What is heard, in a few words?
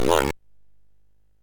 MOS6581R3, Electronic Speech Systems